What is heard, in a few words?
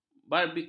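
"barbiturat", Malay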